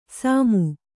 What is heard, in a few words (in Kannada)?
"ಸಾಮು", Kannada